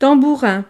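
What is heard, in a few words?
"tambourin", French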